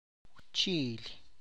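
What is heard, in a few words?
tlacochahuaya, Zapotec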